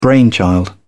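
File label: "brainchild", British English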